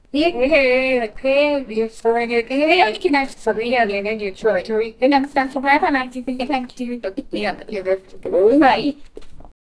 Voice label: woman speaking gibberish